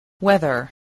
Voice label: "weather", spelling